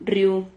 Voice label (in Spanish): "rriu", silabas